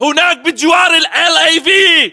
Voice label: landmark near lav, localized english battlechatter